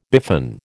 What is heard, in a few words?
"biffin", American